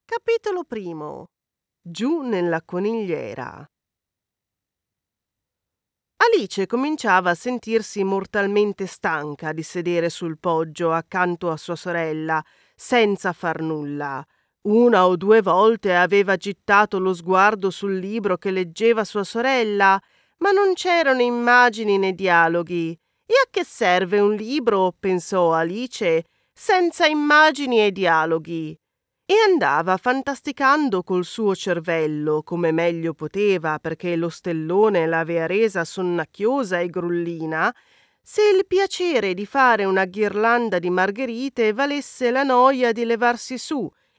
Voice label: Kids Fiction Italian Character Voices Engaging; Alice In Wonderland